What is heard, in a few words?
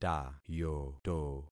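"tayoto", concatenated syllables; Affixing experiment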